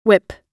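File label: "whip", English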